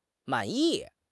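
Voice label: "man3 yi4", Mandarin Chinese; HSK3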